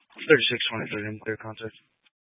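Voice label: Police Dispatch, scanner audio recording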